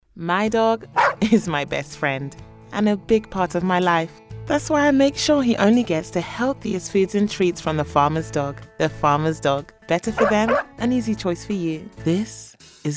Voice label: commercial